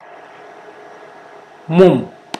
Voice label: fish, Mokilese

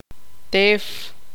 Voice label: tzutujil

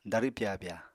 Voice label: "darw pyabya", Apatani

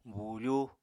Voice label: "buufú", Apatani

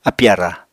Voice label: "apì-ara", Apatani

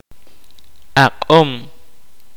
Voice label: kaqchikel; Medicine

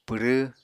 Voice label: "pwrẃ", Apatani

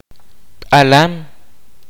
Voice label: "tiger", kaqchikel

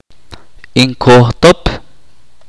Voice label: "algunos", chorti

